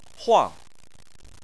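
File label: "huang", Chinese, fourth tone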